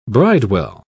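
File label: "bridewell", English, British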